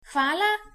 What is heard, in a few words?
thanks, slovenian